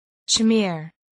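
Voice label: "chimere", English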